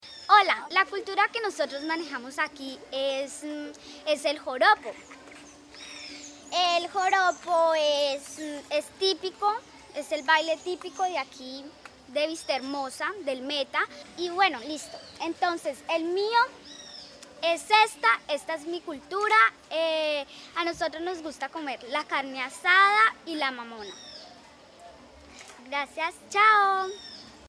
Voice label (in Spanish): Mensaje niña; mi voz es tu voz; Audios de presentacion ninos y ninas de la obra musical